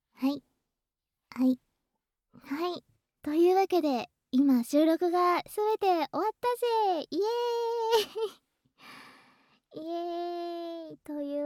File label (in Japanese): 綿棒フリートーク; 両耳奥ぐちゅぐぽ+乳首攻め; 貴方はお姫様の暇つぶし玩具～囚われのお姫様～